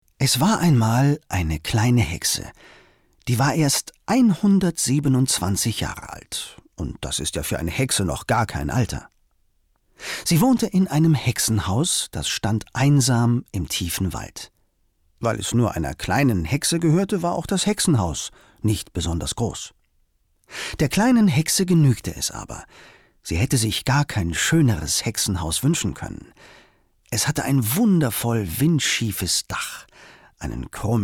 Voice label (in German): erzaehlung